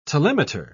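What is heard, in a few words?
"telemeter", British English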